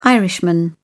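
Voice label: "irishman", British English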